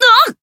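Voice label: Voice damaged, Japanese; milla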